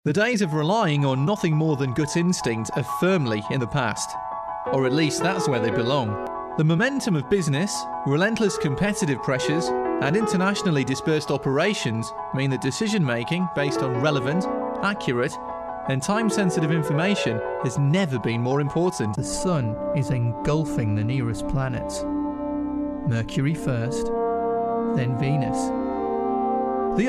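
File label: English, British, male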